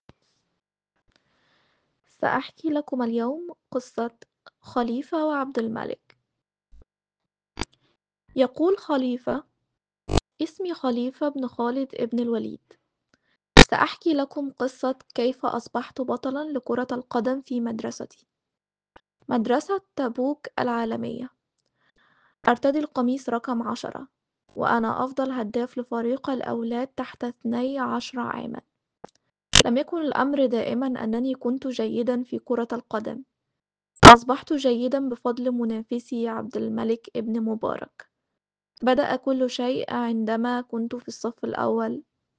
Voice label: Arabic